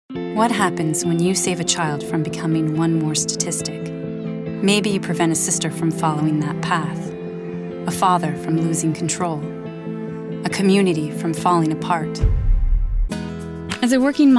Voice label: VO, voiceover acting